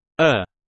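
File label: "err", English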